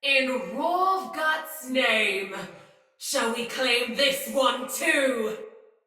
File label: See Player Shout Sinecure Soldier Female; Battle; Barks; Dialog